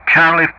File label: "Charlie", ENG; Ka-50; DCS